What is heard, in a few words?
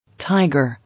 "Tiger", English